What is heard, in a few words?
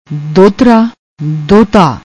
"dohtra", Punjabi; ਦੋਹਤਾ